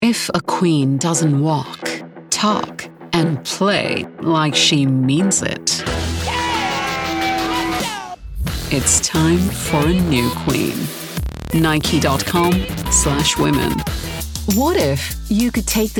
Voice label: Voiceover